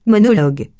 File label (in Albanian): "monologue", frengjisht